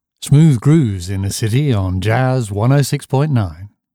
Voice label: Jazz Sweeper: Believable Genuine Articulate Aged Deep British Voice; Radio / TV Imaging; Jazz in the city